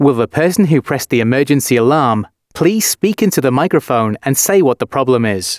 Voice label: announcements, male